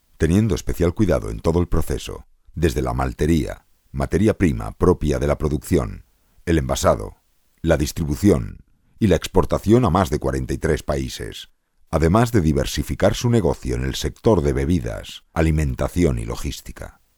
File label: spanish voiceover locutor